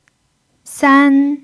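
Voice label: "san" first tone, chinese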